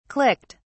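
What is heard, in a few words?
"clicked", British English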